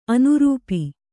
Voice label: "ಅನುರೂಪಿ", Kannada